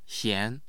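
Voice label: "xian2", Mandarin Chinese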